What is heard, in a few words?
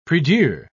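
"prie-dieu", British English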